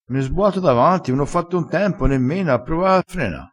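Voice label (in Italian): "sbua", dialettale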